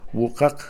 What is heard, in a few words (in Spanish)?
seis, Kakchikel, Números